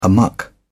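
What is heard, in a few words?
"amuck", British English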